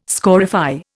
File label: "scorify", Indian